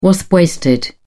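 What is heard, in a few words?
"wasp-waisted", British English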